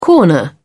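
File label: "corner", British English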